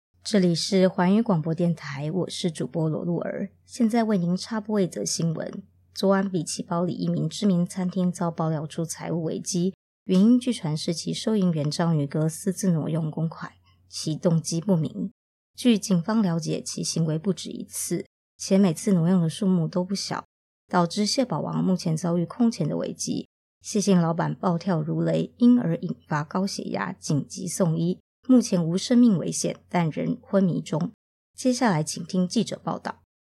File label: news